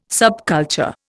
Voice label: "subculture", Indian